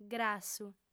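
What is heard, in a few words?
"grassu", female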